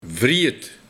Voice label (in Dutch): "Wriejed", Wreed